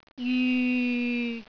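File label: "jyu6", Cantonese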